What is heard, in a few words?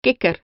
"FROG", dutch